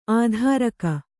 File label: "ಅದುರುಗ", Kannada